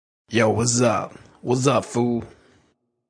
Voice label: Yo Waz Up Fool, Man's slang for "yo what's up fool?"; Talking